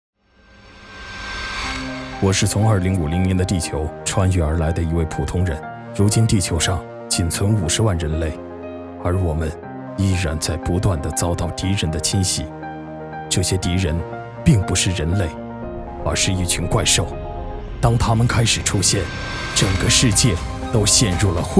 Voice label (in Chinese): 男 片花 电影预告片